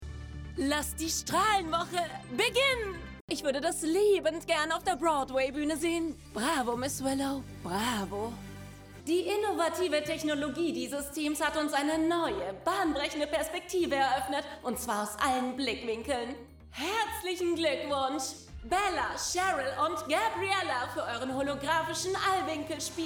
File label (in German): Synchron; Rainbow High